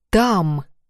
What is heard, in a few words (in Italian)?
T in russo